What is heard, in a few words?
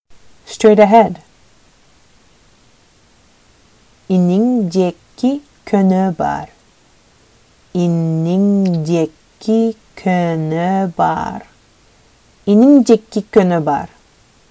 Straight ahead, Sakha